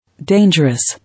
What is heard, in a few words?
"Dangerous", English